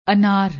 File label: "anar", Punjabi; ਅਨਾਰ